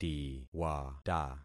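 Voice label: "tiwata", concatenated syllables; Affixing experiment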